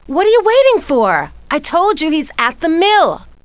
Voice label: female hurry; kingpin loc; bambi

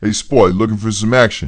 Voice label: male neutral talk; kingpin

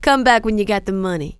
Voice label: come back, female; kingpin; hiredgirl

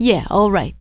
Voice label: "alright", female; kingpin; hiredgirl; orders; hold

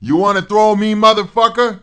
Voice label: cuss, profanity, male; kingpin

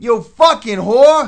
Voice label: cuss, profanity, male; kingpin

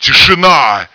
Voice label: quiet

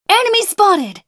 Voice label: FEMALE SEE ENEMY; combatarms

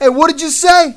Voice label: cuss, profanity, male; kingpin loc

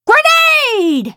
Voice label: FEMALE GRENADE; combatarms

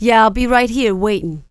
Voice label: waiting, female; kingpin; hiredgirl

